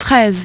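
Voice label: "thirteen", English; iOS 4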